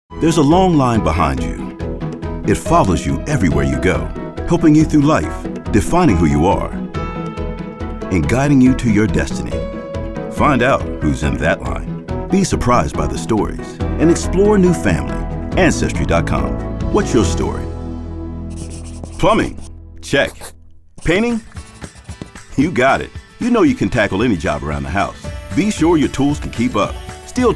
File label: Commercial